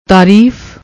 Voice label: "taareef", Punjabi; ਤਾਰੀਫ